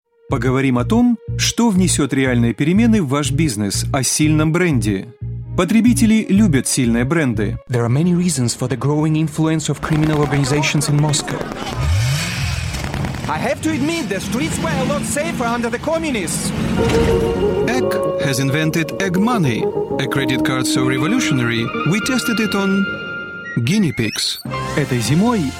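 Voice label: Russian, General; GoLocalise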